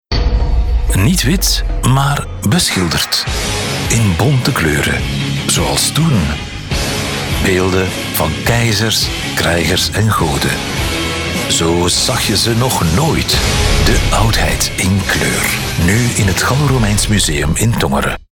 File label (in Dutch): Spot; Gallo-Romeins Museum Tongeren; Vlaamse voice-over